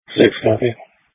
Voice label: Police Dispatch